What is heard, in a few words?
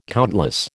"countless", American